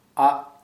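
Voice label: Santali, male, bow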